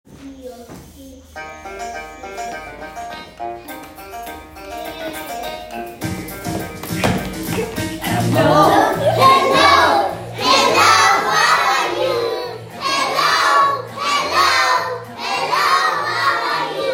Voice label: hello from greek pupils; etwinning